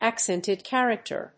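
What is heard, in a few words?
"accented character", English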